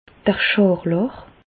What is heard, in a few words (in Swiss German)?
"scharlach", Bas Rhin; medecine; scarlatine